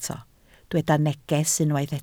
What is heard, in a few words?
"neges", Welsh